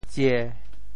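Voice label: "tsie1", Teochew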